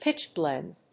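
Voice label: "pitchblende", English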